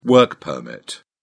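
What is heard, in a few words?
"work permit", British English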